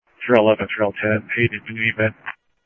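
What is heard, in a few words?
police department, scanner audio trunk; ThompsonPeakHigh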